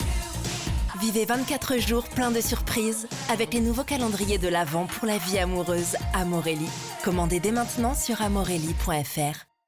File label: french promos